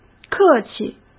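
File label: "客气", Mandarin Chinese; chinese-flashcards; hsk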